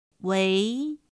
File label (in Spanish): "úi", Chino